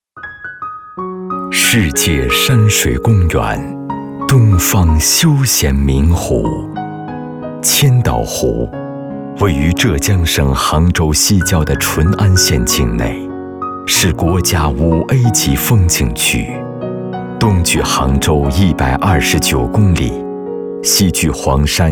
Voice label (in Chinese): 男 宣传片 千岛湖